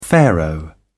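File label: "pharaoh", English, British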